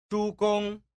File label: "shu gong", tiếng trung; SỐ ĐẾM